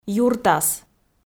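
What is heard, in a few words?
"юртас", Komi, female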